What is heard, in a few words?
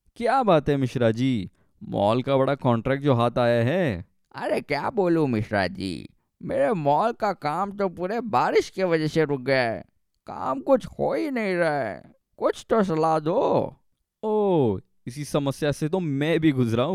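Hindi Male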